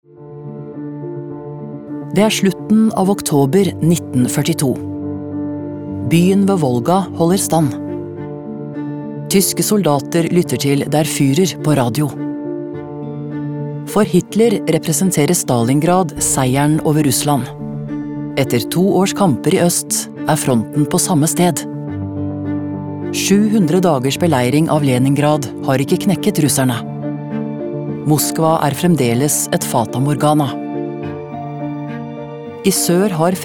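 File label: Norwegian documentary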